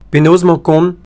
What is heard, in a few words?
breton how